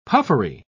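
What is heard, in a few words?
"puffery", British English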